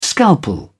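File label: "scalpel", British English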